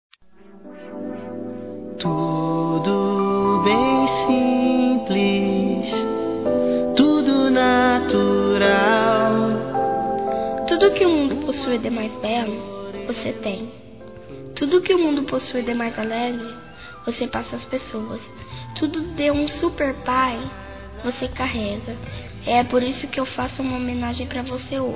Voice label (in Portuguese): Aniversário Pai Infantil Feminino; Telemensagens